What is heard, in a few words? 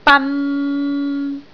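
"ban3", Cantonese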